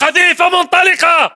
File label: inform attack grenade, battle chatter, english